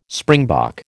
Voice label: "springbok", American

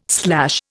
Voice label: "slash", Indian

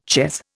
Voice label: "chez", Indian

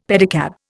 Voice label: "pedicab", Indian; Dictionary

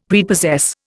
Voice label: "Prepossess", Indian; Dictionary

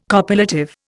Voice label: "copulative", Indian

